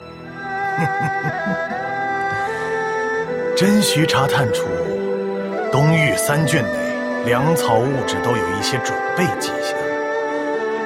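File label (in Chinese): zhonglaonian, middle-aged and elderly man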